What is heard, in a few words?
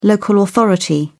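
"local authority", English, British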